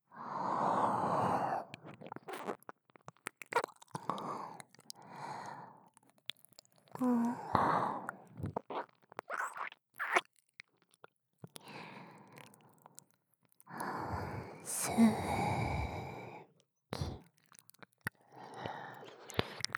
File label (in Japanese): すきすき囁き耳舐め